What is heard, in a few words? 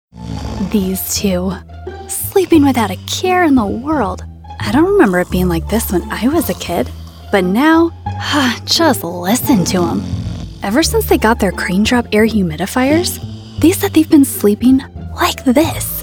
Commercial